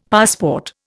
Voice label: "passport", Indian; Dictionary